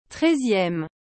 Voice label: "treizieme", French

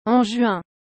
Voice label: "en juin", French

